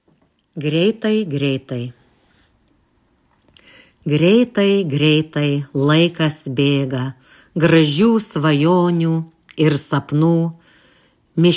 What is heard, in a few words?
narration; greitai greitai